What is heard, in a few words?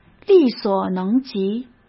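"力所能及", Mandarin Chinese; chinese flashcards; hsk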